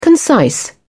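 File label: "concise", British English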